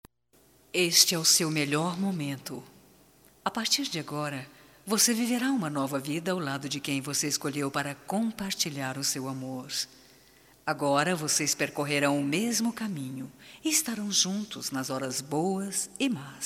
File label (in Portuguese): Voz, fem; Telemensagens; Só Voz; CASAMENTO; Telemensagens 2017